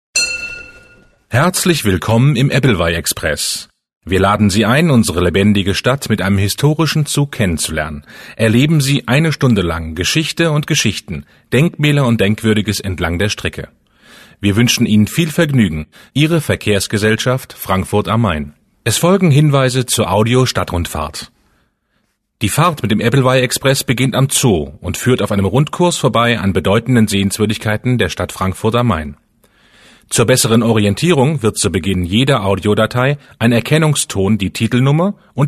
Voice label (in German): hochdeutsch